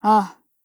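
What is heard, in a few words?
agree, Japanese; BrenMKMod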